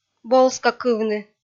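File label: "болскакывны", Komi-Zyrian, female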